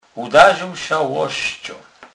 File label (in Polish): "ludazec", kurpiow